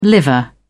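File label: "liver", British English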